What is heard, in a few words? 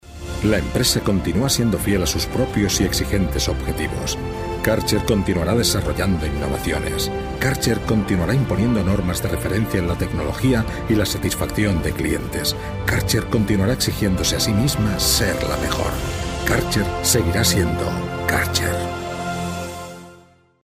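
Spanish Spain, male, voice over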